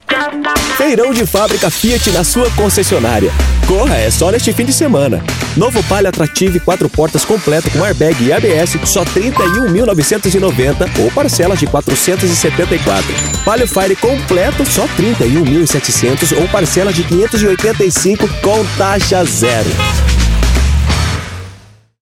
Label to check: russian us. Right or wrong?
Wrong